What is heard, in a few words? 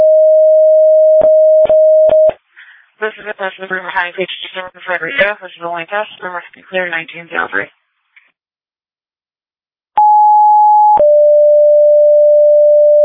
Fire, dispatch